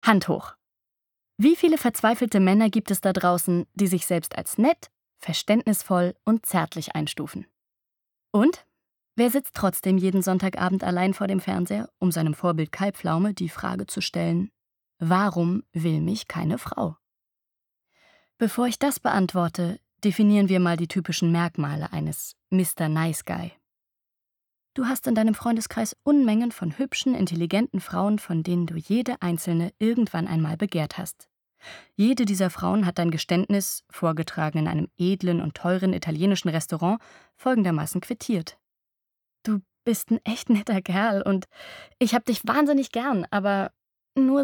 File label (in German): monolog